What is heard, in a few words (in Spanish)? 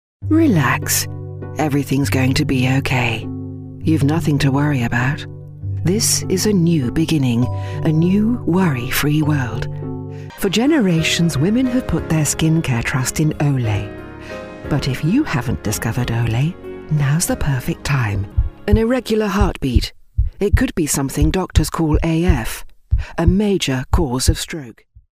Femenino